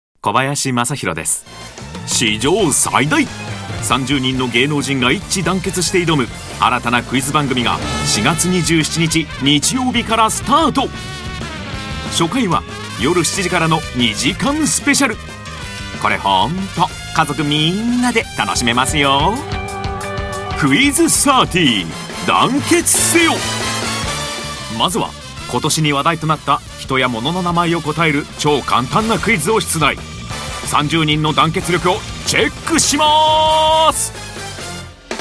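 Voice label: Japanese, Commercial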